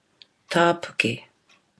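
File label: "tapuke", Māori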